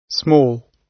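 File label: "small", British English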